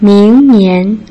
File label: "ming2 nian2", Putonghua